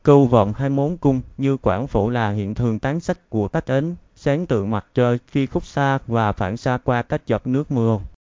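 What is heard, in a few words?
speaker, American English; piper-voices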